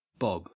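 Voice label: "bob", English, Australian accent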